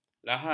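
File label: "lahar", Malay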